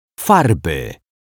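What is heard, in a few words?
"farby", Polish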